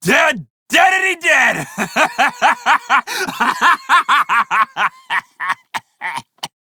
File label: crew enemy defeat, male; Dialog; Warframe; Railjack Crew; Red Veil